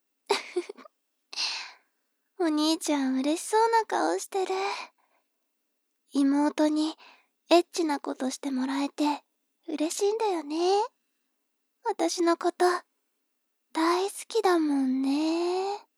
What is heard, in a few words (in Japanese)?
妹 台詞